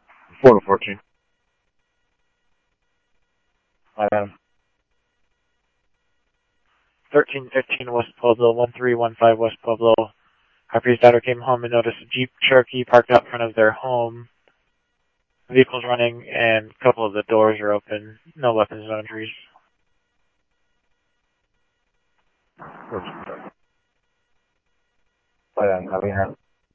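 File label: Mesa Police Department, scanner audio trunk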